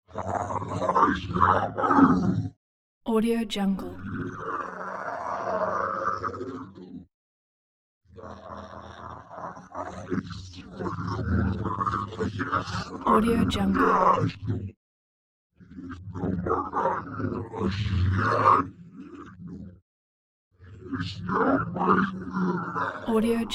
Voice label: robot vocals short phrases; prosoundfx